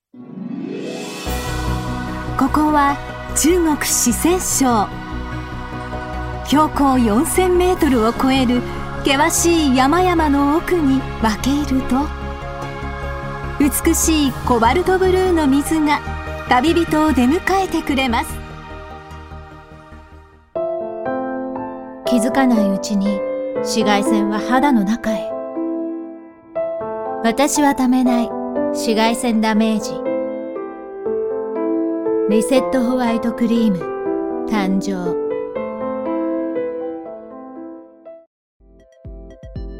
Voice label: japanese commercial

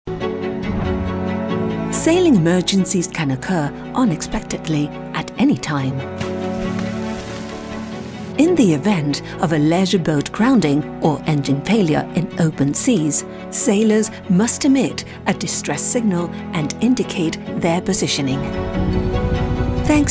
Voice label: english british corporate videos

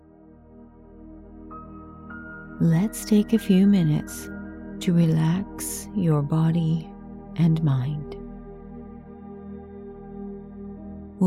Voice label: English canadian others

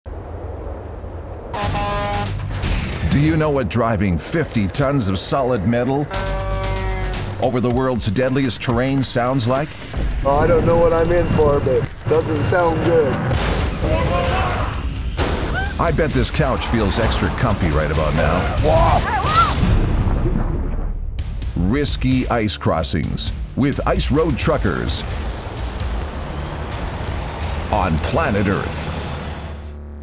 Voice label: english american automotive; Profundo e autoritário com clareza elegante e calor

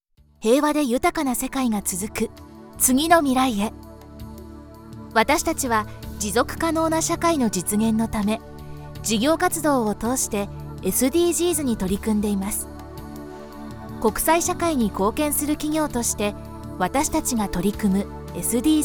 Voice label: japanese corporate videos

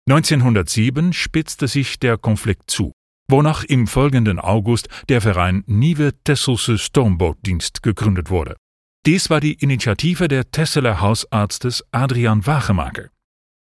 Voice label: german commercial

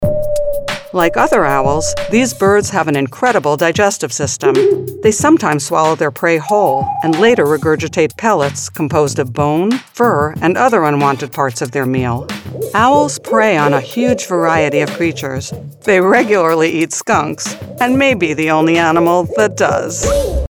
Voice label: english american narration

